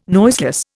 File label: "noiseless", Indian